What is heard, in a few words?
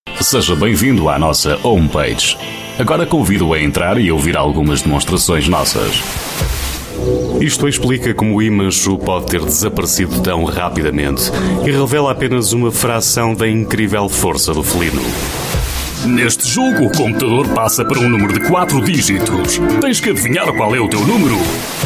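Portuguese, male, commercial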